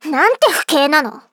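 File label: start, Japanese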